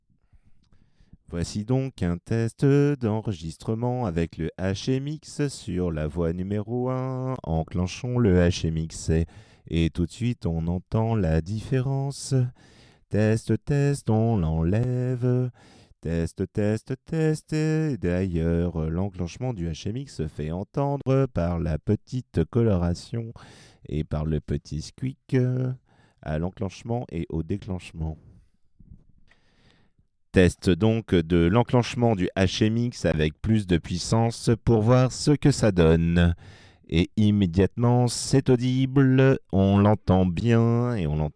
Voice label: sm58; audient mico